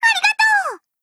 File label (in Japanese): VO jp